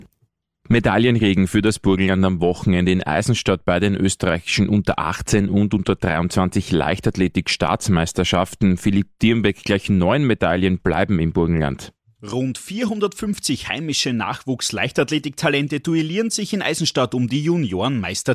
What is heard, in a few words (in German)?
Radio; Radio Burgenland